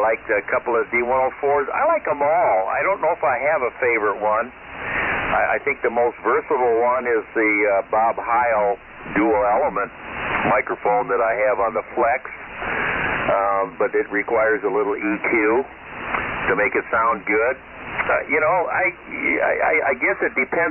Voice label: Collins 32S-1 75S-1 Shure 444 Mic; Empire,MI.